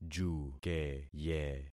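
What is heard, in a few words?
"jukeye", concatenated syllables; Affixing experiment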